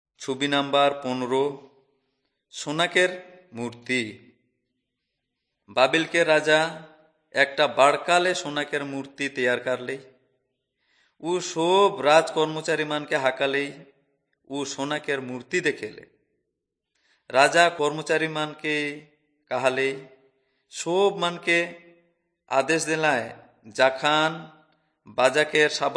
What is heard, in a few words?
urao, drama